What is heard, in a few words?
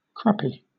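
"crappy", British English; pronunciations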